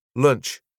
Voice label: "lunch" British